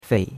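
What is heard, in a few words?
"fei", Chinese, third tone; Cours-de-Chinois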